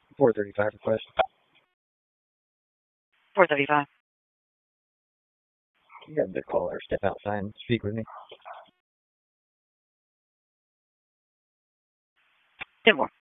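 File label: Police District Dispatch, p25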